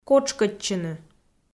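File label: "котшкӧдчыны", Komi, female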